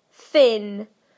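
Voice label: "thin", British English; PictureDictionary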